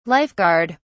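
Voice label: "life guard", British English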